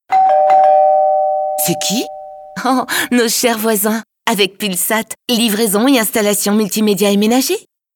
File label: french tv shows